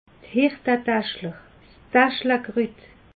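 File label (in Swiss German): "hirtetaschle", Alsatian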